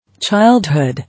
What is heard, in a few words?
"Childhood", English